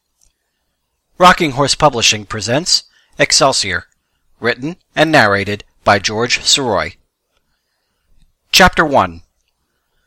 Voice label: audiobook sample